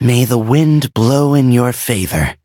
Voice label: level up, en

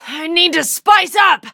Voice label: defeat, en; cookie run

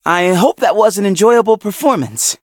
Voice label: victory, en; cookie run

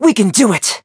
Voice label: battle en; cookie run

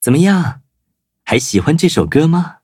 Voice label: loading, zh-hant; cookie run

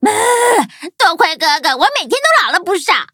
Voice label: levelup zh-hant; Cookie Run

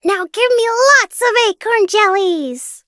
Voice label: victory, en

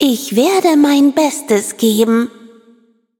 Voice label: loading, de; cookie run

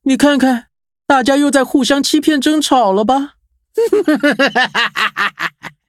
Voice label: levelup zh-hant

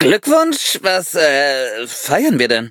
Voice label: levelup, de; Cookie Run

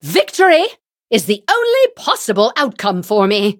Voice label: loading, en; cookie run

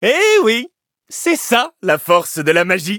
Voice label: victory, fr; cookie run

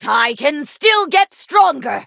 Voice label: levelup, en

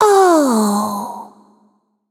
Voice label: defeat, de; cookierun

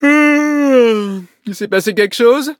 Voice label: victory, fr; cookierunwiki

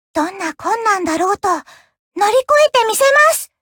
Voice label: levelup ja; Cookie Run

